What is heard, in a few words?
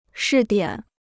"试点", Chinese